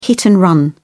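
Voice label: "hit-and-run", British English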